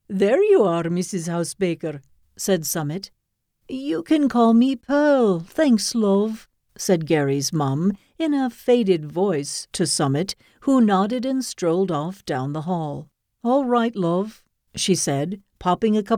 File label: Audiobook